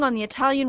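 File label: oN THe italian, American English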